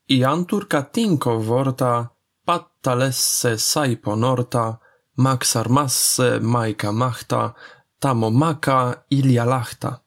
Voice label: abc tinco slow